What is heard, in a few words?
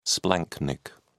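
"splanchnic", British English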